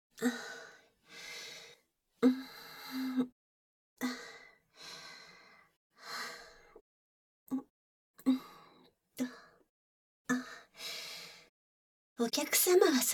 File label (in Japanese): ぬるぬる性感オイルマッサージ 簡易モノラル編集 効果音なし